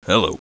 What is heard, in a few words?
"hello", bodyguard; svencoop